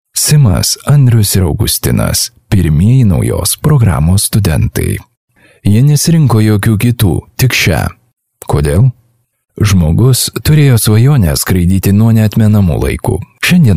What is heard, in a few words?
Lithuanian Audiobook, Lithuanian Male